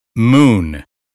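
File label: "moon", American English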